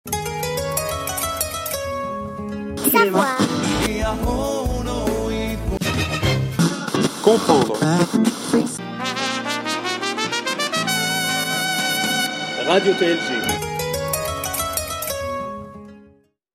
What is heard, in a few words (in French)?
Radio